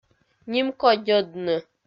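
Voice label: "нимкодьӧдны", Komi-Zyrian, female; kpv audio dict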